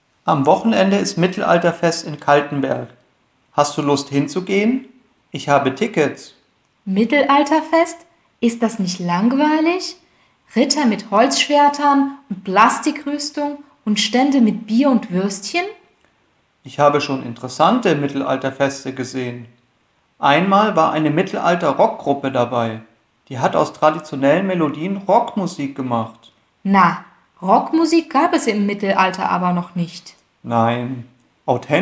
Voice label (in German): Dialog